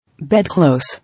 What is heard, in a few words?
"Bedclothes", English